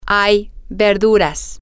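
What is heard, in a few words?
there are vegetables, spanish, female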